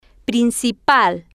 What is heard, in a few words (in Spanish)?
"prin-ci-pal", Spanish